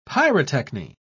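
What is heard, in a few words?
"pyrotechny", British English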